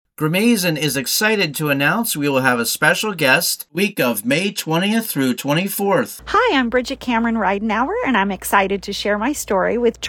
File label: Commercial